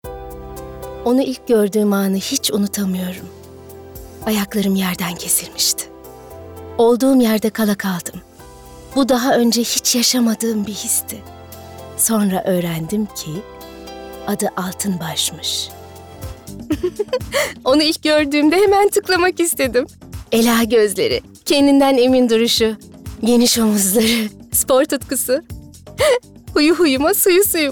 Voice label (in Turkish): Reklam